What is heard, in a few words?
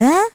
vo confused; Crypt of the NecroDancer